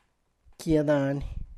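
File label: peak, Tlacochahuaya Zapotec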